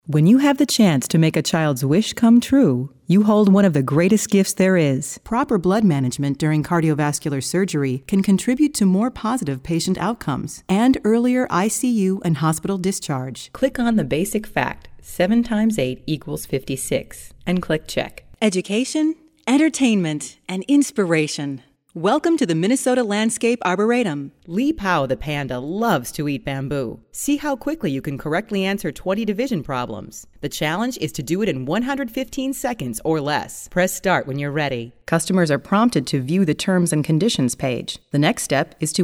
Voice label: Narrative